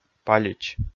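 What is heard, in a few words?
"палич", Komi, male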